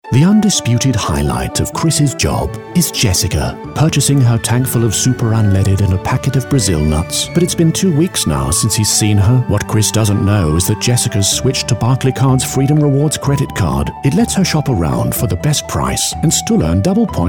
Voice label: Storytelling Corporate Sentimental; BARCLAYCARD

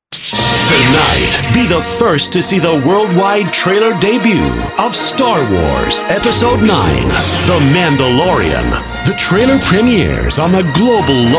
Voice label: US ENERGETIC, TRAILER; STAR WARS

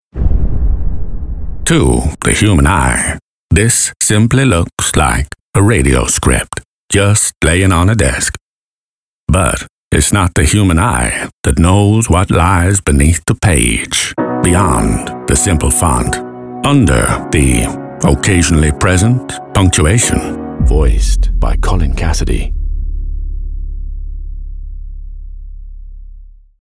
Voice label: Impression, Voice; Morgan Freeman

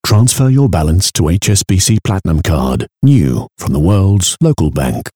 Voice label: Slightly Husky Unundulating Corporate; HSBC PLATINUM CARD

